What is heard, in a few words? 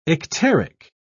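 "icteric", British English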